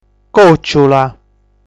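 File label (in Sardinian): "Còciula", Sardinian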